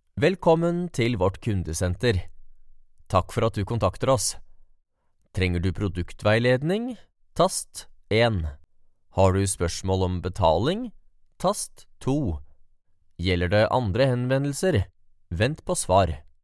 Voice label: norwegian ivr

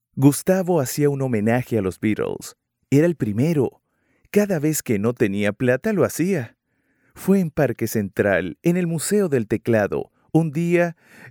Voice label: spanish latin american audiobooks

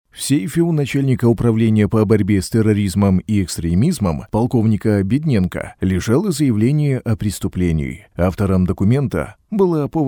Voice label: russian audiobooks

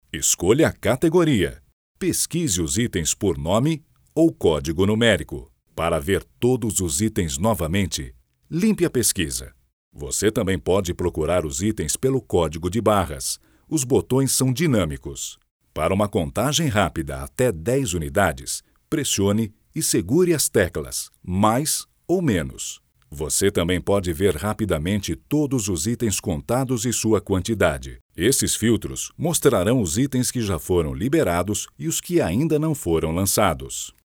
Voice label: portuguese brazilian e-learning

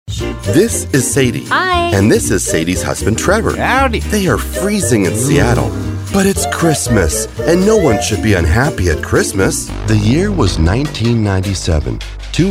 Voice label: English Canadian narration

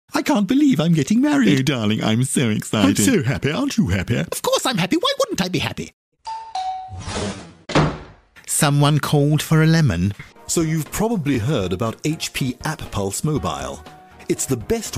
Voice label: english british animation

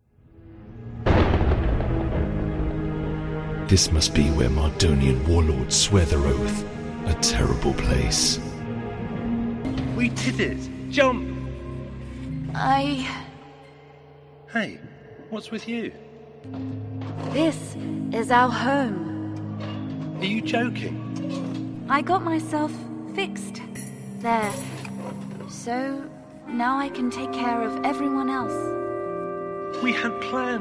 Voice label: english british video games

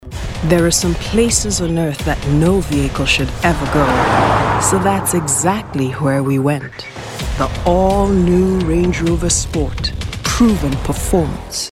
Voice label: english african automotive

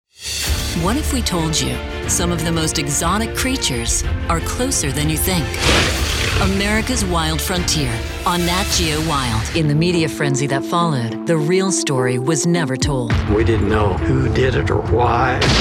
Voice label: english american promos

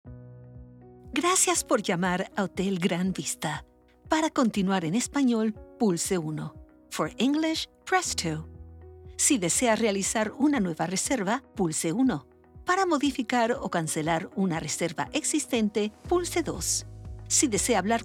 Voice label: spanish latin american ivr

